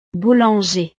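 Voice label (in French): "boulanger", French; profesiones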